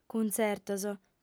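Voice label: "cuntzertos", female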